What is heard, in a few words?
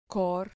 "cor", Spanish syllable